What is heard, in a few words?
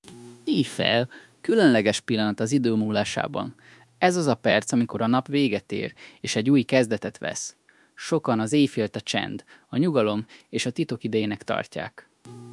synth hu, Hungarian; VibeVoice 7B Diffusion-head-LoRA Hungarian-CV17